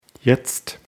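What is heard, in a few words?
"jetzt", German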